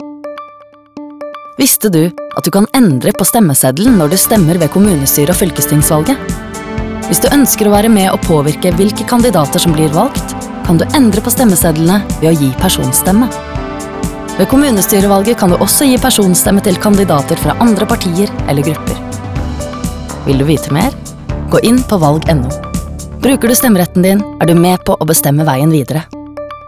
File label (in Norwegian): VO Mix Radio; Personstemme; kommunevalg; valgportalen